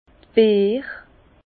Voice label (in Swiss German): "beer", Bas Rhin; vin